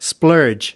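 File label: "splurge", American English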